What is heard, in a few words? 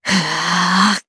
Casting, jp; Ripine; kingsraid